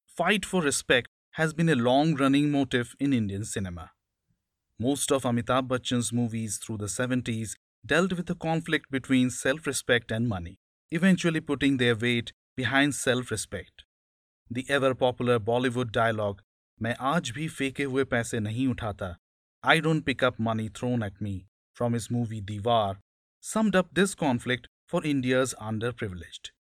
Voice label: Audiobook Indian English

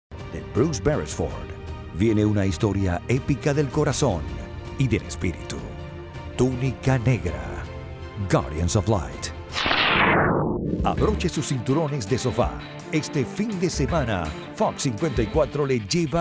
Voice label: Trailers Imaging

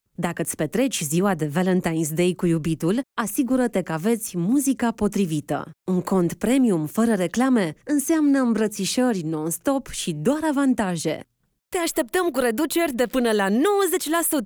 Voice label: commercial

